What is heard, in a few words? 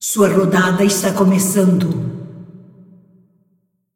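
announcer female tournaments round start; pt br; Clash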